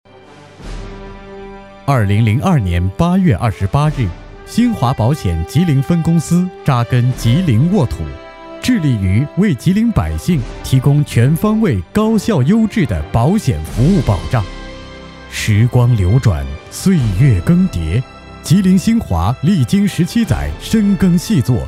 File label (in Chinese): 企业专题 大气稳重; 新华保险